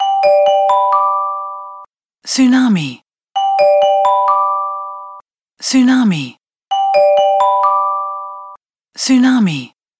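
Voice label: translated tsunami English; iOS Sounds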